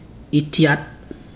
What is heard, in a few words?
"stone", Ibibio